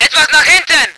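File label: voice german vehicle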